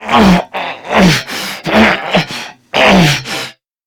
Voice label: Struggle Crewmen; Warframe; CorpusCrewmen; CrewmanA